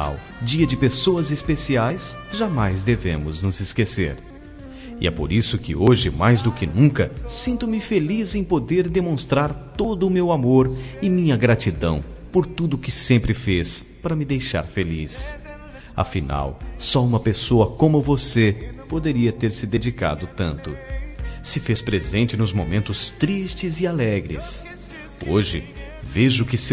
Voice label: Aniv. de Avô M

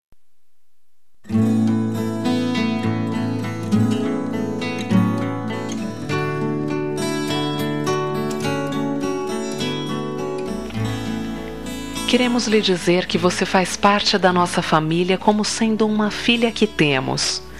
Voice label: Aniv. de Nora, F

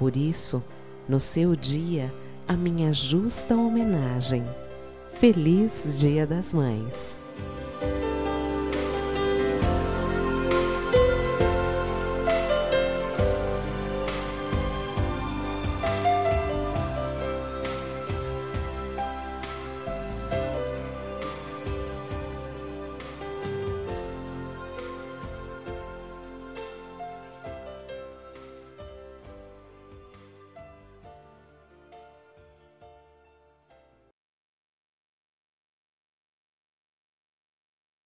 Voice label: Dia das Maes pra amiga, F

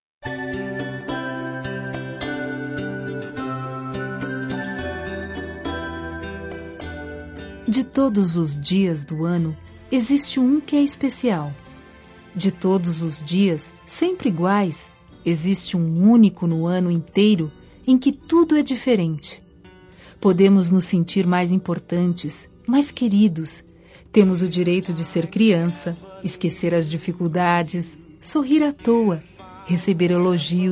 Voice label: Aniv. de Genro, F

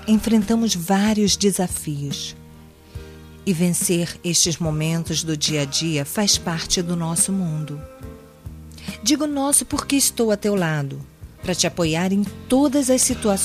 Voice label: Amizade Com Otimismo pra Amiga, F

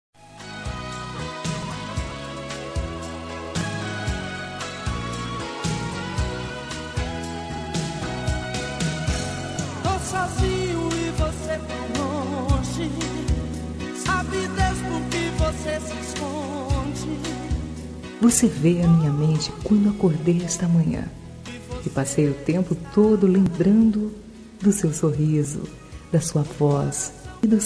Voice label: Romântica Com Saudades

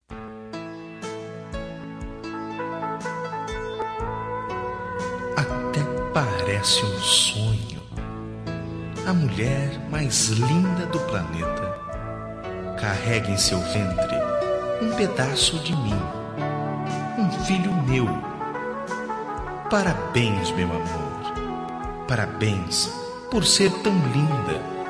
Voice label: Romântica pra Gestante

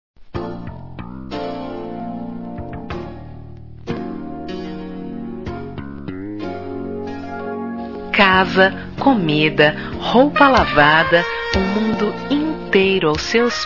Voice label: Romântica Com Conquista, F

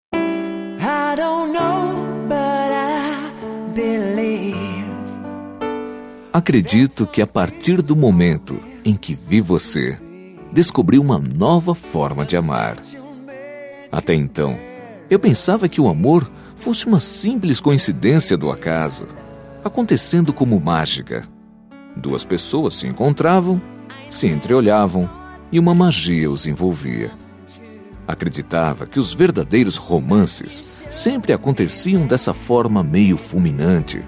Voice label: Namorados Voce Me Surpreende M

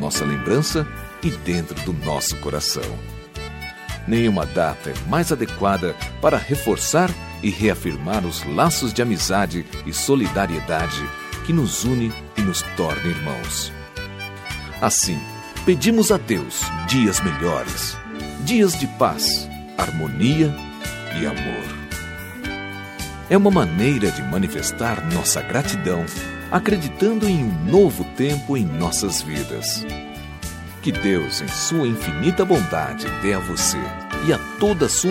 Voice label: Feliz Natal Cartao de Natal M

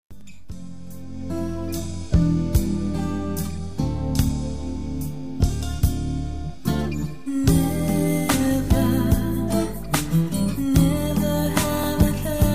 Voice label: Namorados Com Pedido de Namoro, F

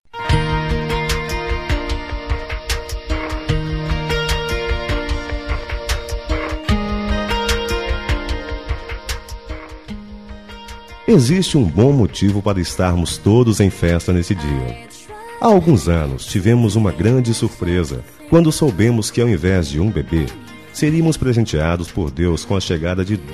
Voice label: Aniv. de Gêmeos M Pai

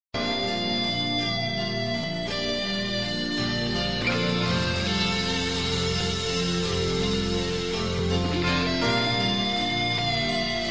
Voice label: Romântica Com Paquera, F Infantil

